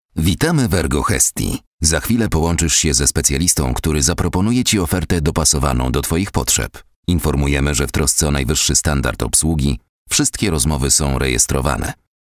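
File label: interactive voice response; ERGO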